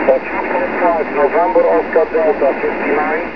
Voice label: 28 ssb